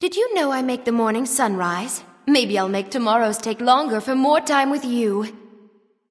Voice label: Female Joke; Valentine; Khepri; Smite

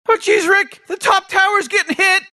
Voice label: announcer top tower under attack; Rick and Morty

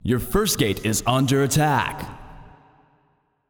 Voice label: Announcer Match Under Attack Gate First

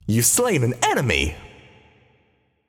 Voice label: Announcer Vox Match Slain Enemy; Paladins

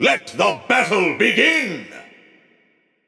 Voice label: Announcer Match Start; Bomb King; Paladins

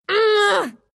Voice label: anger, ru; Dota 2

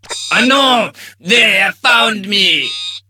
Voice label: low; Awesomenauts

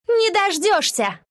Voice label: deny, ru; dota2

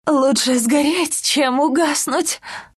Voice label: death, ru; Lina; dota2

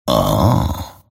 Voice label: happy; grimstroke

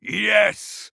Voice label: Emote Yes; Paladins; Dark Lord

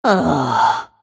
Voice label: happy; Snapfire

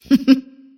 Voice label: happy; antimage

